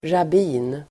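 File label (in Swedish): "rabbin", Swedish; rabbin substantiv, rabbi; Uttal: [rab'i:n]; Definition: judisk präst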